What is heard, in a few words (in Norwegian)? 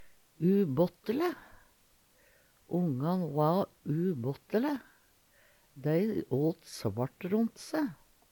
"ubåttele", Numedalsmål; Ongan va ubåttele. Dei åt svart ront se.; Adjektiv